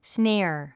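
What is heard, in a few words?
"snare", English; snéə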